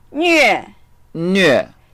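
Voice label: "nve4", Mandarin Chinese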